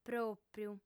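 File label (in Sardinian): "pròpiu", female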